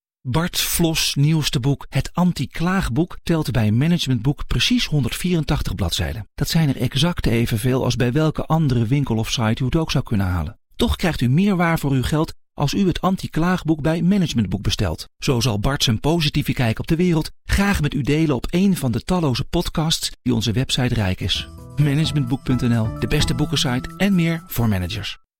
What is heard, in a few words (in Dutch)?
Radio reclamespotje